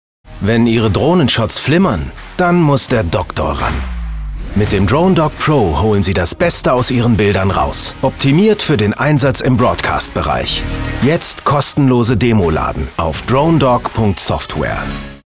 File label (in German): Jungen Werbung Software